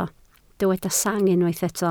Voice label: "sang", Welsh